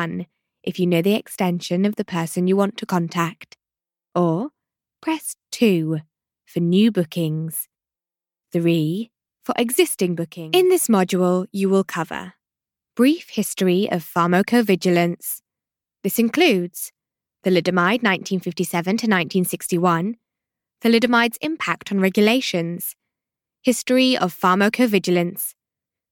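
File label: English, West Yorkshire, female, corporate; voice over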